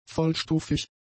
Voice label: "vollstufig", German; adjectives

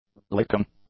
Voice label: "licking", English; nouns

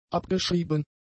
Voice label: "abgeschrieben", German; adjectives

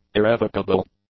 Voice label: "irrevocable", english; adjectives

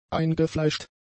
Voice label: "eingefleischt", German; adjectives

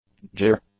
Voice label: "jeer", English; nouns

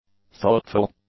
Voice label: "thoughtful", English; adjectives

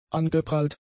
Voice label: "angeprallt", German; adjectives

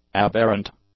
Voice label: "aberrant", english; adjectives

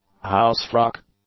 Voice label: "house-frock", English; nouns

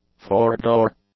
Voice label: "four-door", English; Adjektiv; adjectives

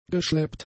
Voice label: "geschleppt", German; adjectives

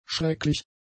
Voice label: "schrecklich", German; adjectives

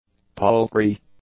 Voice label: "paltry", English; adjectives

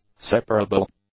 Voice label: "separable", English; adjectives

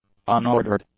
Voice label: "unordered", english; adjectives

